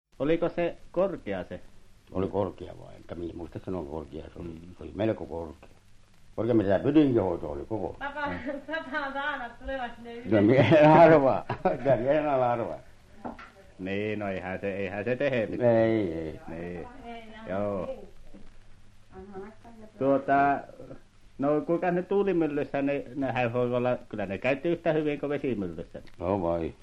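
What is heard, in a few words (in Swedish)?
Övertorneå Norrbotten, dialekter; Folkminnen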